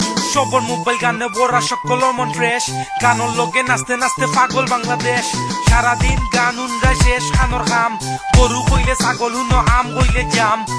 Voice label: Bangla Rap

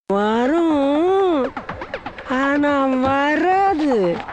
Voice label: Comedy; Vadivel

